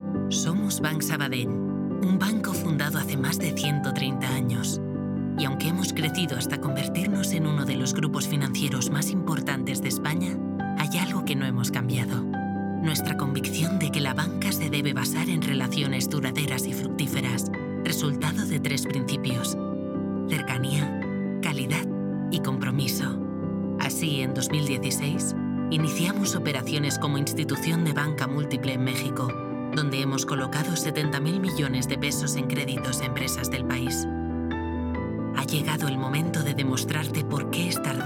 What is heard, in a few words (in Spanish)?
CORPORATIVO INSTITUCIONAL